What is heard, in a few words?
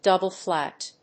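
"double flat", English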